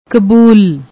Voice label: "kbool", Punjabi; ਕਬੂਲ